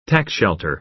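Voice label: "tax shelter", American English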